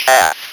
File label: "calf", Speak & Spell